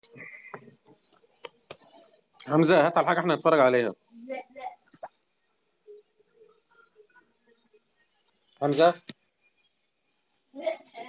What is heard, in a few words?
Call recording